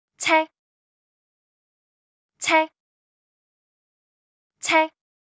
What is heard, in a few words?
"車", cantonese; Traditional Chinese